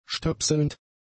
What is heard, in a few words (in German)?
"stöpselnd", German; adjectives